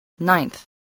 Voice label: "ninth", voice

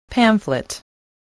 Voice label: "pamphlet", English voice

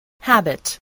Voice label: "habit", spelling

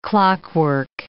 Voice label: "clockwork", English

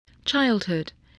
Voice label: "childhood", English, voice

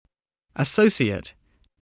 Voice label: "associate", english

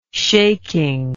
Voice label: "shaking", words

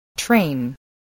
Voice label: "train", voice

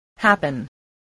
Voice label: "happen", English, spelling

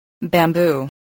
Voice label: "bamboo", English

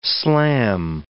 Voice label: "slam", English

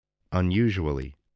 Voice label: "unusually", English

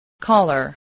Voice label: "collar", voice

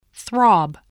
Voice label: "throb", spelling

